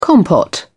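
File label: "compote", English, British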